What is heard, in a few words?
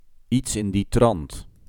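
"Iets in die trant", Dutch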